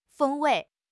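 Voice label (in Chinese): "风味", Mandarin Chinese; HSK6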